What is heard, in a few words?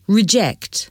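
"reject", English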